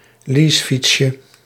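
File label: "leasefietsje", Dutch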